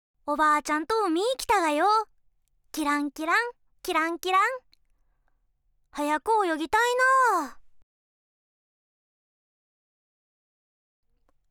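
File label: Mild dialect, Japanese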